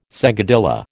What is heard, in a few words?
"seguidilla", American